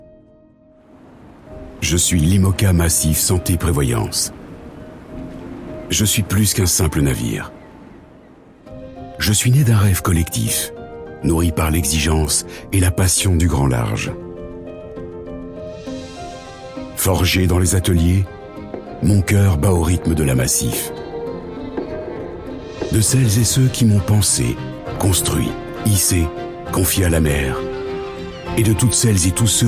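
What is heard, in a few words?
Corporate Low Narrative Compelling; MACIF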